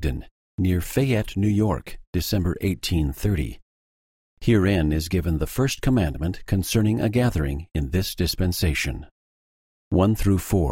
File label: section female voice eng